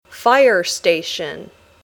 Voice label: "fire station", English